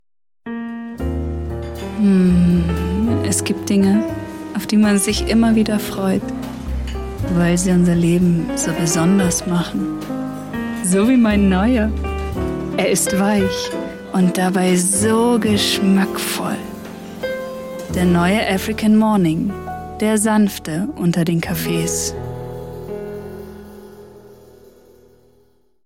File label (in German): Werbung